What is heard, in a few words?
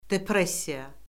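"depressiya", Azerbaijani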